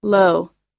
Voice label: "low", us